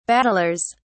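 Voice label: "battlers", British English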